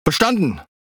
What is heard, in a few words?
Speech Quick Commands Aye Aye, DE; World Of Warships